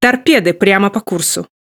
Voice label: Speech Alarms Torpedo Ahead; World Of Warships